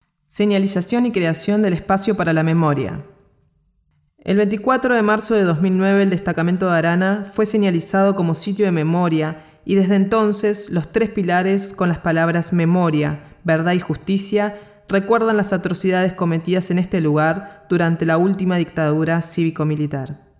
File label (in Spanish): audioguia